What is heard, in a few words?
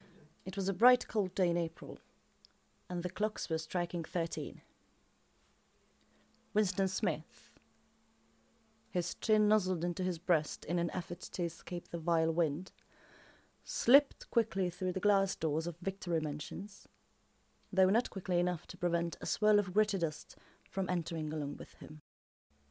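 Hungarian, English Lightly Accented; GoLocalise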